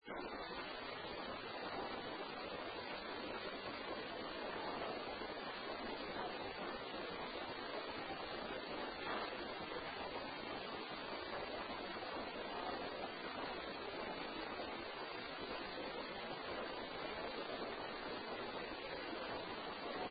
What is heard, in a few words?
Whisper Female voice Help me; Case 020 Ballard Building